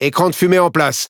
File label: Speech Consumable Smoke Stop, FR; World Of Warships